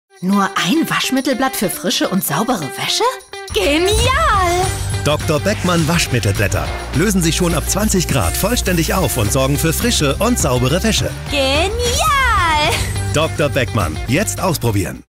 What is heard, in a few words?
TV Spot, Commercial (Werbung), German; Dr. Beckmann